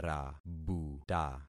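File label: "rabuta", concatenated syllables; Affixing experiment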